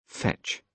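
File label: "fetch", British English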